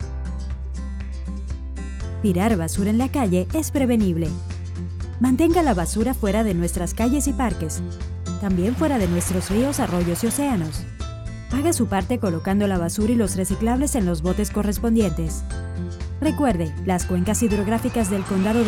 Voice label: Spanish